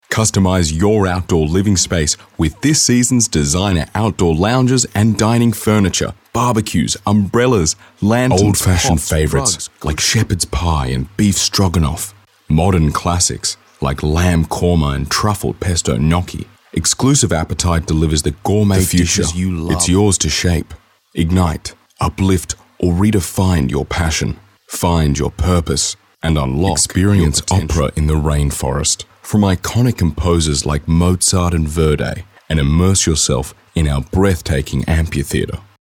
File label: Soft Sell